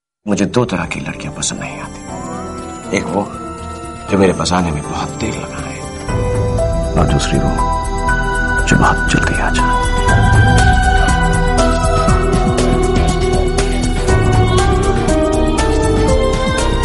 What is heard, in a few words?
dialogue ringtone; don; english ringtones